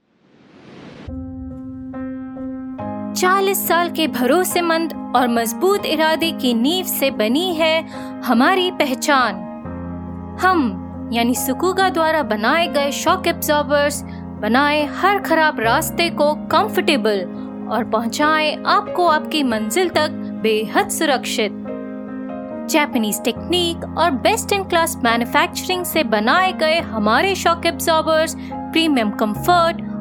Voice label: Explainer, Hindi

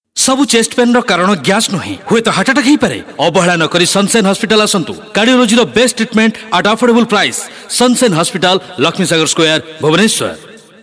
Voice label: radio oriya

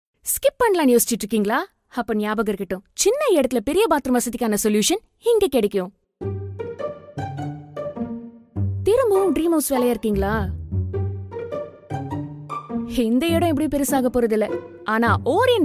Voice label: TVC, tamil

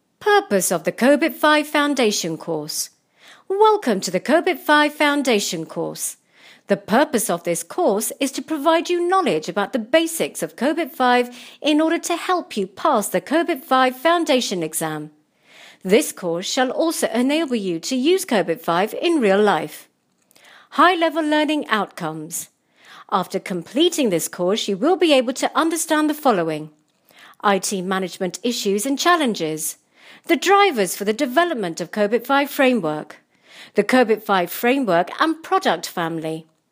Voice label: elearn

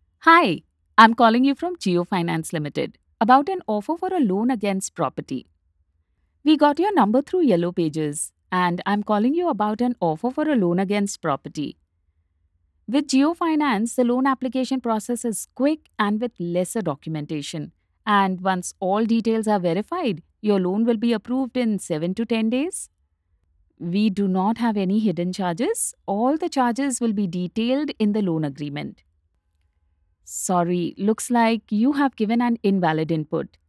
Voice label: Explainer English

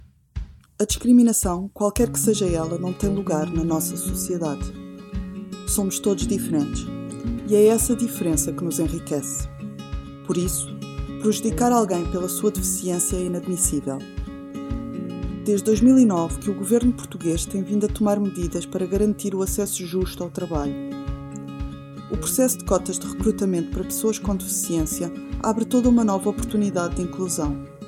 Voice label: Corporate portugese

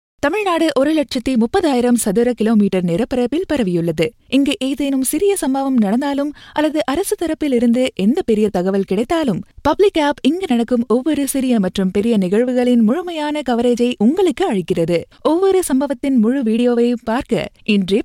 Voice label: tamil

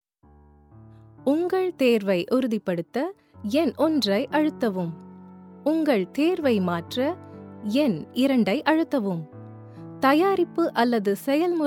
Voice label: IVR Tamil

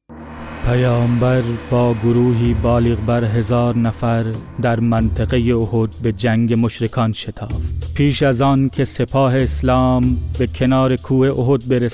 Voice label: persian male

